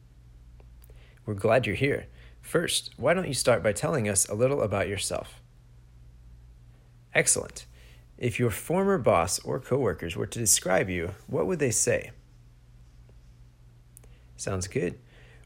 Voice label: Interview Speaking